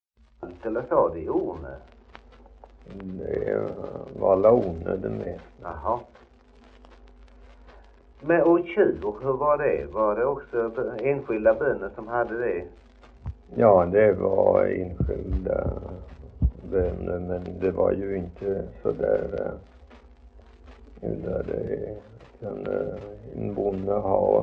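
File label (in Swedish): Idala Halland, dialekter; Folkminnen; Svenska sagor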